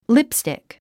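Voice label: "lipstick", English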